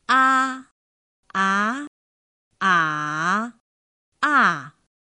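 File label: ā á ǎ à, Mandarin Chinese; pinyin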